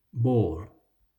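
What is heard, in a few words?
"ball", English; how many toys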